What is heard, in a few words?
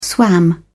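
"swam", British English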